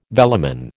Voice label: "velamen", American